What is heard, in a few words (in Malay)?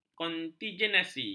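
"kontingensi", Malay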